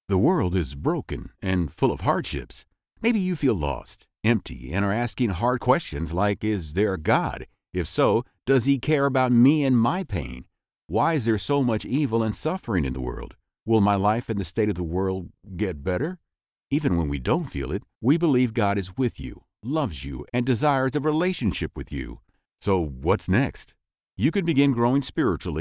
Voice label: Christian Conversational